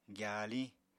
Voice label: "gyapya-gyasa", Apatani